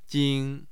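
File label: "jing", Mandarin Chinese, tone 1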